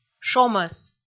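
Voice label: "шомӧс", Komi, female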